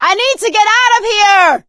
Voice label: call for rescue, survivor voice

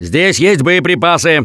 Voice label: Spot Ammo, russian, Survivor Voice; Gambler

